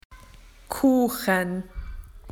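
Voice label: "cake", Australian English; PictureDictionary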